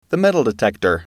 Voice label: metal Detector, englishAudio